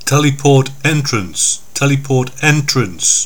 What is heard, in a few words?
"telent", vox; tfc